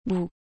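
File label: "gout", French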